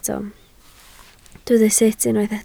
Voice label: "sut", Welsh